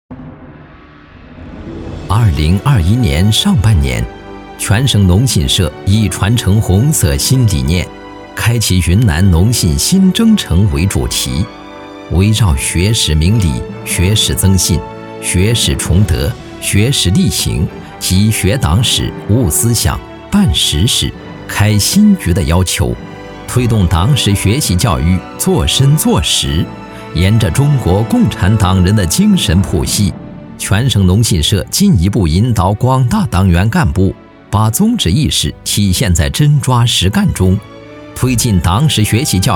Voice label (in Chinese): 男 专题 年中工作会